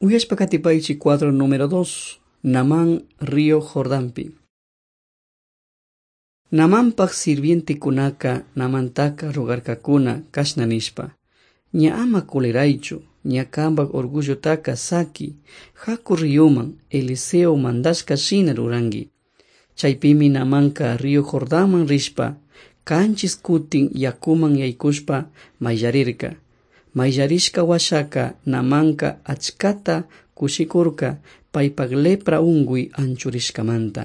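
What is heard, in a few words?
quichua chimborazo, drama; on trial for god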